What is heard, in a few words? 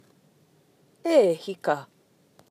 E hika!, Māori